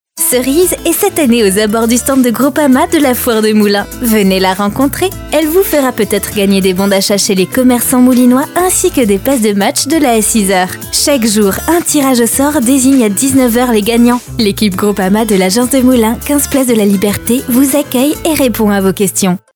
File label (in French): spot radio voix naturelle promo; GROUPAMA